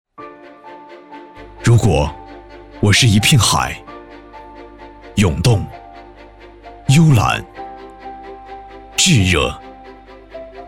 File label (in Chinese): 男 大气宣传广告